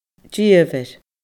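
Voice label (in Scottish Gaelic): "diomhair", Scottish Gaelic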